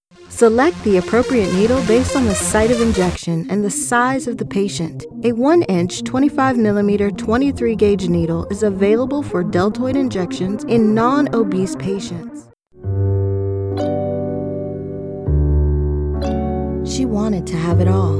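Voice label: Narrative, voiceover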